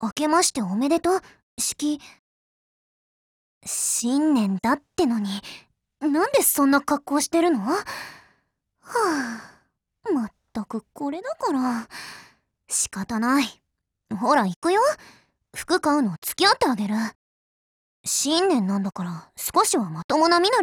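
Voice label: NEWYEAR, Japanese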